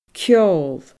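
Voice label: "ceol", Scottish Gaelic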